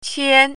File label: "qian1", Chinese pinyin